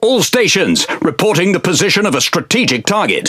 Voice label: Speech Quick Commands Enemy Ship, EN GB; World Of Warships